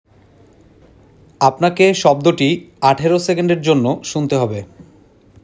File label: male sound given